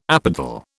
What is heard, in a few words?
"apodal", British